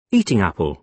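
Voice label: "eating apple", British English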